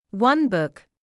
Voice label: "one book", English

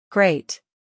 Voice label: "Great", English

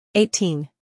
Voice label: "eighteen", English

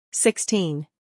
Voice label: "sixteen", English

